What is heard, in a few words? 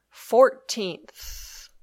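"fourteenth", English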